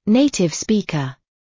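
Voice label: "native speaker", British; Language, linguistics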